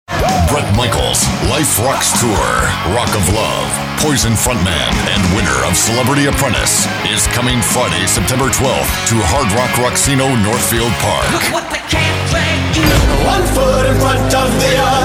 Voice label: live event, Commercial Voiceover, TV and Radio, Promo